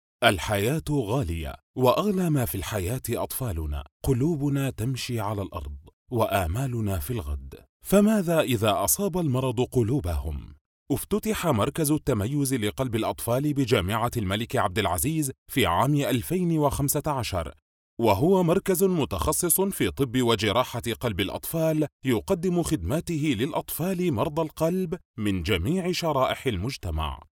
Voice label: arabic informative